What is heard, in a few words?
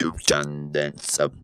"can dance", Funky Vocals; USB Soundscan vol.18; AKAI